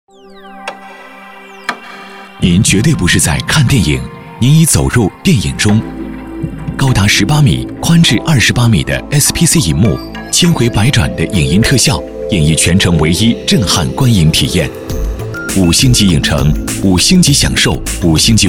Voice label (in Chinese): 广告 促销 科技, 男国; 世贸影城